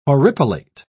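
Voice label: "horripilate", British English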